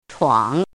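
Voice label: "chuang" third tone, pinyin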